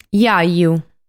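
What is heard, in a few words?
"Jaju", Sardinian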